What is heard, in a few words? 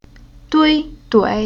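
"Dui", Chinese syllable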